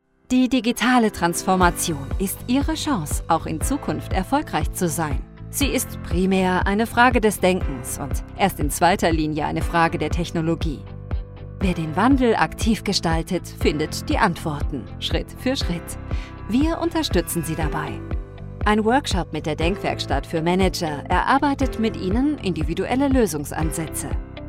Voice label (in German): ERKLAERFILM Digitalsierung dynamisch-animierend; CORMETA